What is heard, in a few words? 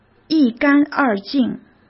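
"一干二净", Mandarin Chinese; hsk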